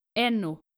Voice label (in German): "enno", nordische jungennamen